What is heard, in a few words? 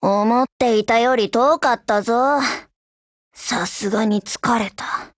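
OPERATION OVER Japanese, Voice; GF Alarm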